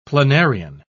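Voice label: "planarian", British English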